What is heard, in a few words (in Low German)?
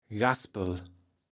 "Gaspel", Platt